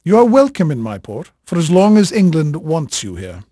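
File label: English male, voice; Pirates Of The Caribbean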